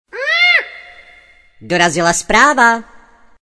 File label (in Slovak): sms hlásky cz